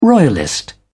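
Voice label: "royalist", British English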